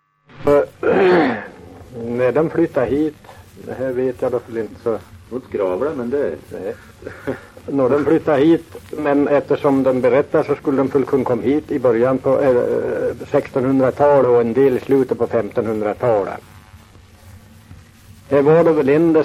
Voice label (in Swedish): dialekter; Folkminnen; Svenska sagor